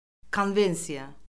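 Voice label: "konvensiya", Azerbaijani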